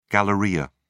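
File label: "galleria", British English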